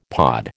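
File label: "pod", American English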